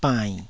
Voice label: "bainn3", taiwanese

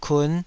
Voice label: "kun5", taiwanese syllables

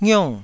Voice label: "ngiong4", taiwanese syllables

